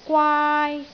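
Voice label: "gwaai1", Cantonese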